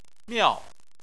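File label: "miao", Chinese, fourth tone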